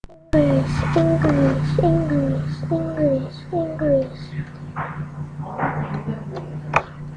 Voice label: English